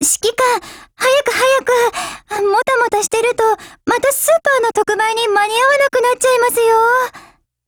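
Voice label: dialogue wedding, Japanese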